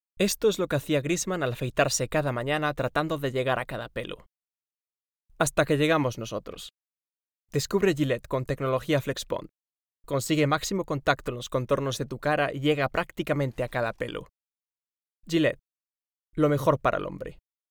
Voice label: male galician and spanish castilian commercial